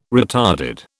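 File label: "retarded", British English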